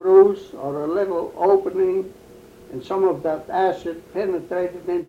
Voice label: Oral history with 89 year old white male Mesa County Colorado, american english dialect recordings